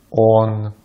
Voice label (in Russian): "он", Russian